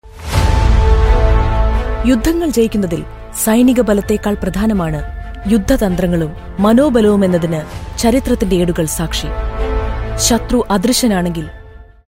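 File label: Malayalam, female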